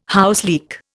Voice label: "houseleek", Indian